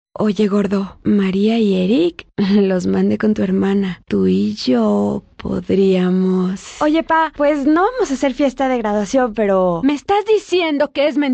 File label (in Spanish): Spanish South America, F